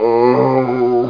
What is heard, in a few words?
zombie pain; Quake